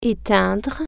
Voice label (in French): "turn off", French